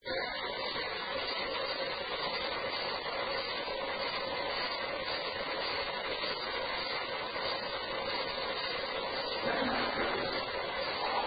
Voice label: Unexplained male voice whisper; Case 024 Pocahontas Fuel Building II; Second Floor; whispering